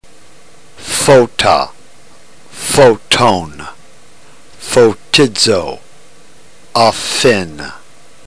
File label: drill, ntgreek